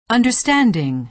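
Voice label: "understanding", American English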